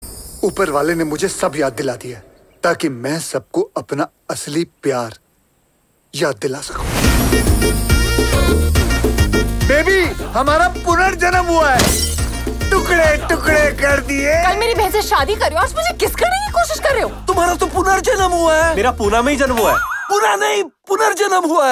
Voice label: Dialogue Ringtone; Housefull 4